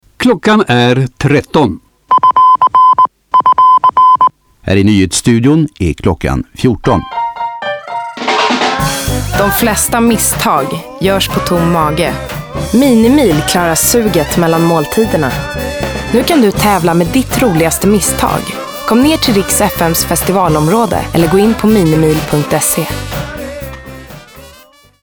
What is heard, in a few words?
Radio; MiniMeal